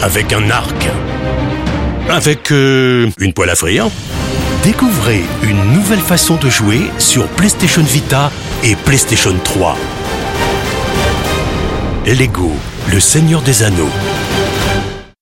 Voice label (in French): pub TV jeu video; Lego